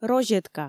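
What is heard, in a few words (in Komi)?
"розетка", Komi, female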